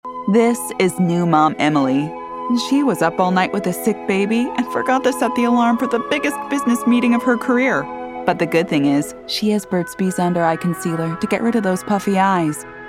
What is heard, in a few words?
Commercial